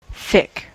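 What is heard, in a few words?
"thick", English, US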